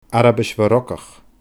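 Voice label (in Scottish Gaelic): "Arabais Mhorocach", Scottish Gaelic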